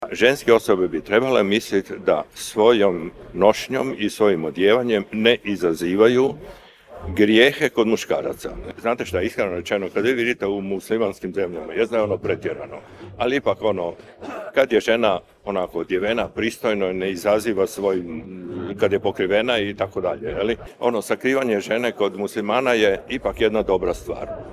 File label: vocals; PATER BOZIDAR NAGY; O MUSLIMANSKIM ZENAMA